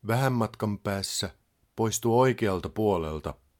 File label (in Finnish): Ah Exit Right, Finnish male; Opas